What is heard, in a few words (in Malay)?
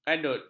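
"kadut", Malay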